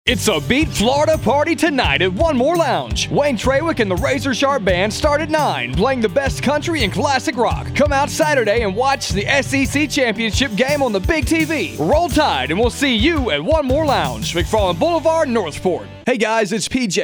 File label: English